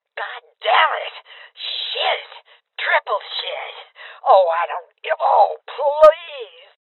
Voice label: Voices Heavy Losses Female Old; rebel cops 2019 gamerip